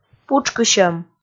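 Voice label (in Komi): "путшкысьӧм", Komi, female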